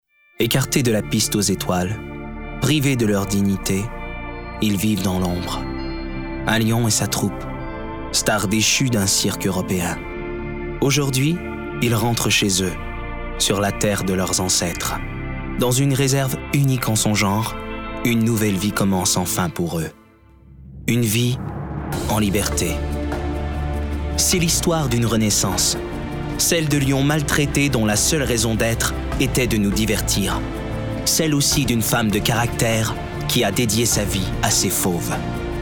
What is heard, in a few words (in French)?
Narration; Agence BD